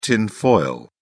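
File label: "tinfoil", British English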